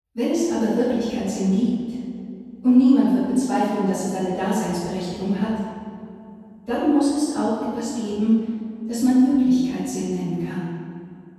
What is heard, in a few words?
alcatraz female